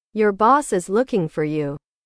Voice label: english

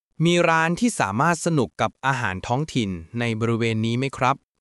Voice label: Thai, basic thai phrases; a list of 100 basic thai phrases you can use while traveling; タイ旅行で必須の「伝える力」を磨く！カタカナ発音で覚える基本の100フレーズ

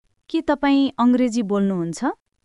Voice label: Nepali, basic phrases

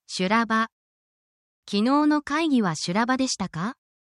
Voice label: negative japanese

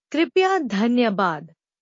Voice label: Nepali, thank you in nepali

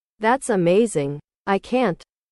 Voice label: english

